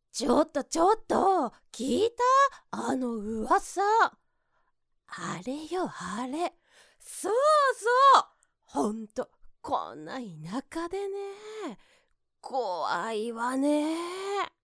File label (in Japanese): おばさん